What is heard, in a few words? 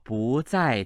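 "bu2zai4", male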